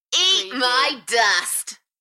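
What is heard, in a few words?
UK female, Enthusiastic, DJ vocals; Eat my dust; Party / Dance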